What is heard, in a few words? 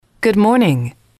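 "good morning", English, female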